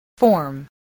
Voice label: "form", English voice, spelling